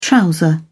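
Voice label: "trouser", British English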